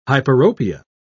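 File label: "hyperopia", British English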